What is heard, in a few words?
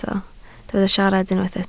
"siarad", Welsh